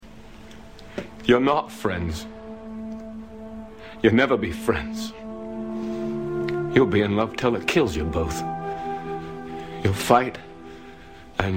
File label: tv speeches